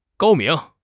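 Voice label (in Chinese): "高明", Mandarin Chinese; HSK6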